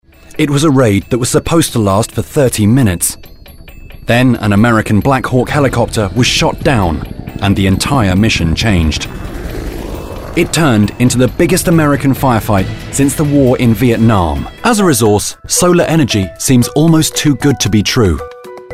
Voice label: british narration